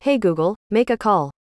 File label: "hey g make a call", us female